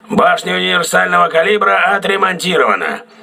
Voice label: voice message auxiliary caliber turret repaired, crew ships russian